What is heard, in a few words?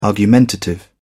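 "argumentative", British English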